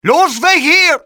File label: run, male; XBOX